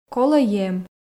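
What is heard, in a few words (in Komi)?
колӧ ем, Komi, female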